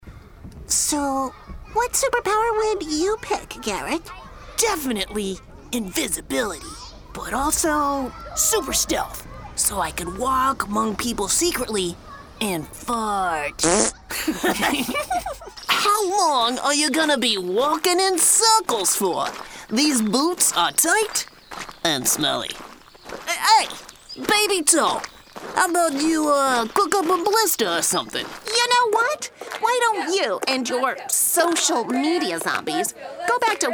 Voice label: english american animation